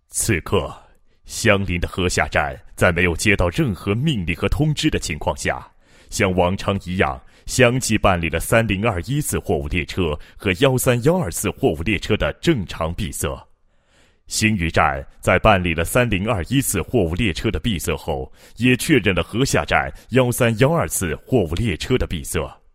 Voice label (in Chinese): 男, 国语男声配音 厚重大气